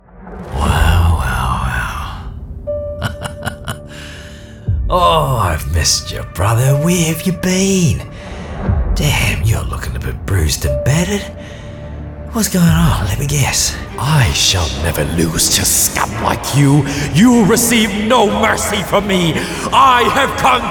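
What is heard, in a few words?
Character, Voice Over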